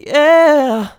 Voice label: "Yeah", Male Vocals, Ad-Libs; C Major 150BPM